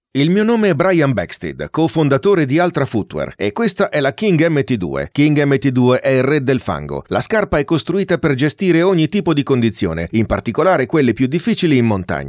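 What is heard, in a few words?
Italian, male, corporate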